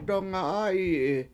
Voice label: "dONa7A7yi7i", Washo; It Got Burned